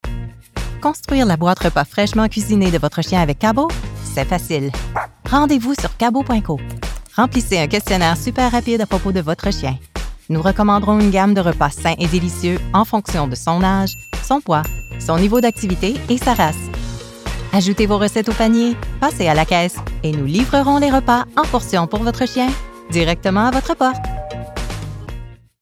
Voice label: Corporate French; Kabo